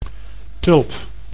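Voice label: "tulp", Dutch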